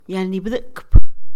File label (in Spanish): Mixe, Mujer; conspiración; INALI